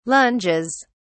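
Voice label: "lunges", British English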